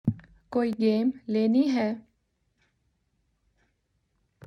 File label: Urdu